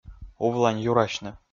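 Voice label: "увланьюрасьны", Komi, male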